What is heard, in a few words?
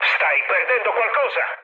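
Italian tank damaged mood high, speech; War Thunder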